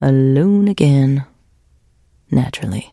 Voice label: trader monolog; 商人杰恩（七日杀）